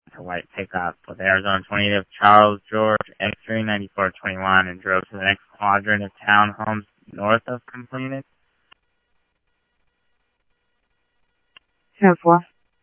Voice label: Tempe Police, scanner audio; SimulcastF-Tempe; TEMPE PD A04

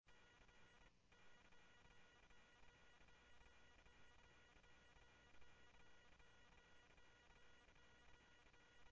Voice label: PD Police, scanner audio

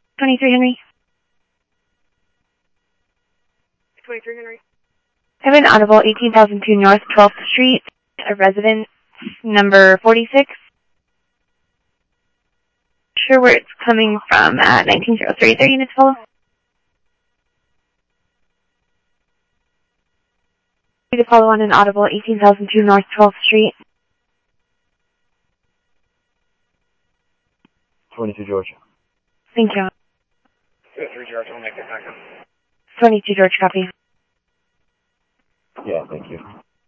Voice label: Phoenix PD, scanner audio

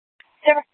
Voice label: Dispatch; Scottsdale

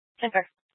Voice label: Scottsdale Police, Simulcast

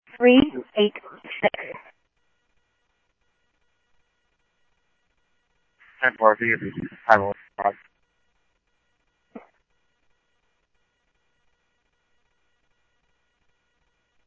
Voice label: Police, scanner audio trunk; H-Scottsdale

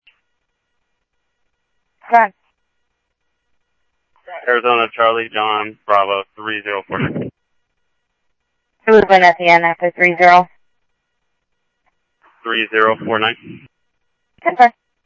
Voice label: Scottsdale Police, scanner audio; SCTSDLE PD - PD1; H-Scottsdale

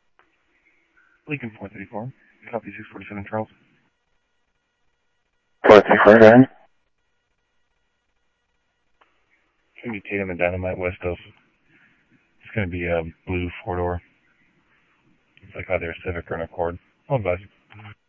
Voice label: Sheriff scanner audio

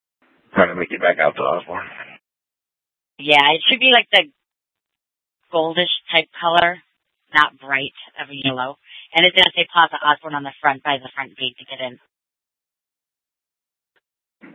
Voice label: Police South Dispatch

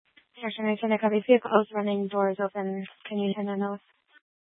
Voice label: Tempe PD, scanner; Rgnl Wireless Co-op (RWC)

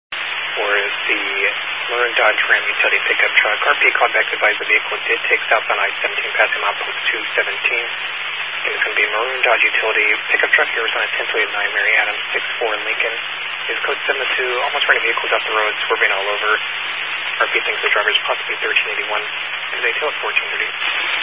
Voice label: Highway Patrol, Phoenix Metro West Area, scanner

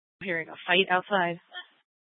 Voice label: PD Dispatch

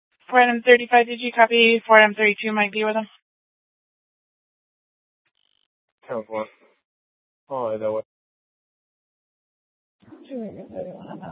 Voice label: Mesa PD Central Dispatch, scanner; TOPAZ Regional Wireless Cooperative (TRWC) - Mesa Simulcast

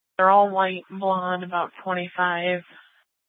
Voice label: Gilbert Police Dispatch; TOPAZ Regional Wireless Cooperative (TRWC) - Mesa Simulcast; 1 Dispatch

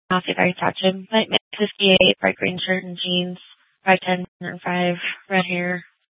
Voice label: Dispatch, Mesa PD

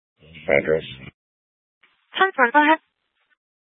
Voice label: Sheriffs Office, scanner

